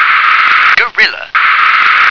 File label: "gorilla", English